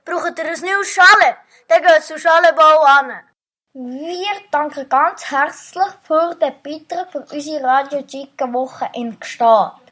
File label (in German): Jingle - Chalet Bau; Chalet Bau Annen